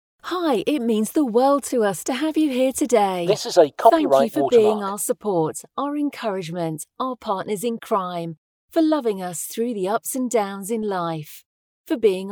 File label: Female Voice